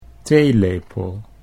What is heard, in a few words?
"theelepel", Dutch